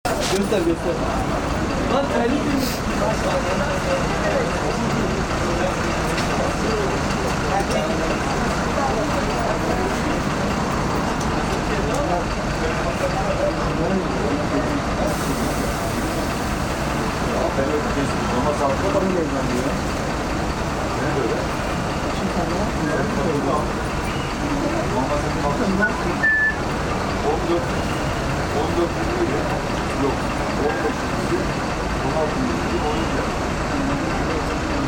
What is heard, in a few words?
bus conversation